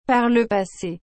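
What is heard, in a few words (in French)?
"par le passé", French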